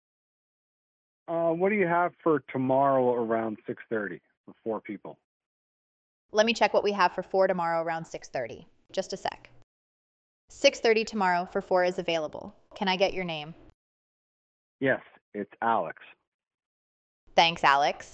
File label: reservation call